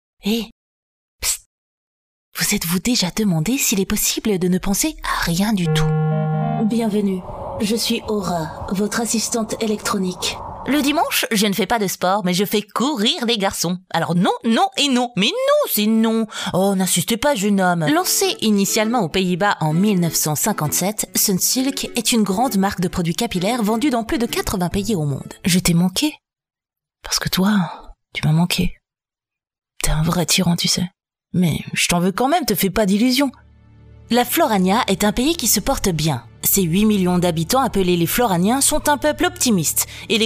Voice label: FR